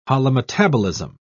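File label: "holometabolism", British English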